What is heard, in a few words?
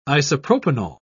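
"isopropanol", British English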